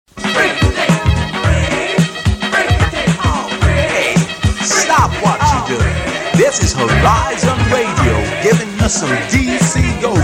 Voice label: Stop What You Are Doing Radio; Horizon Radio Jingles 1981 to 1985